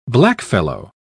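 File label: "blackfellow", British English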